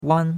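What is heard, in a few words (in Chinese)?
"wan1" Chinese; Cours de Chinois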